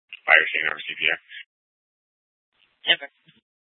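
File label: Police Department North Dispatch, scanner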